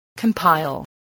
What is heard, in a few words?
"compile", english